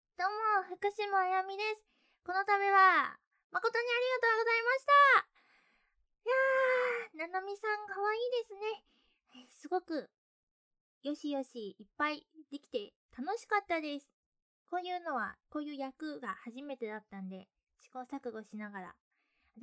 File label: free talk; ASMR